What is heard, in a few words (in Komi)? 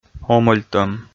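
"омӧльтӧм", Komi, male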